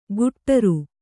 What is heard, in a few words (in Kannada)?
"ಗುಟ್ಟರು", Kannada